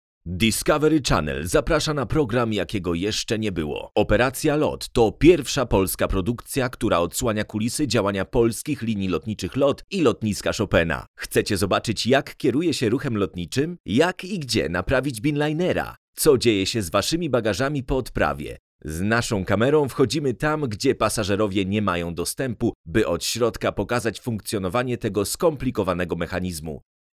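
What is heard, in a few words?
Polish, male, program trailer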